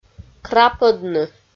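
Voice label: "крапӧдны", Komi, female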